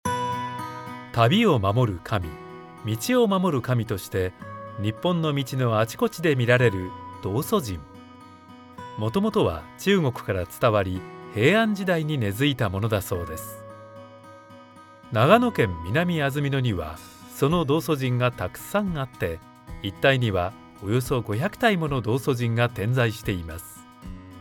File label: calm